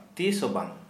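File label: Santali male never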